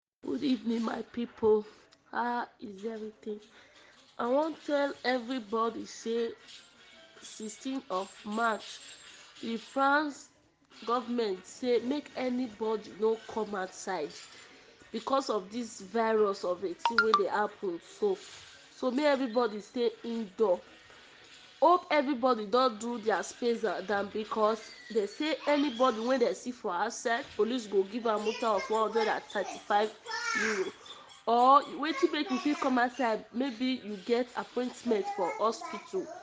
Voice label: pidgin nigeria mess prevention covid; coronavirus